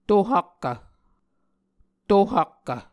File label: "to-hakkv", muscogee; Onvkv Ohhvyayices; A Dictionary of Creek/Muskogee; SIL International